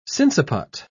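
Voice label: "sinciput", British English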